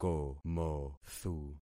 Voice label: "komothu", concat syllables; Affixing experiment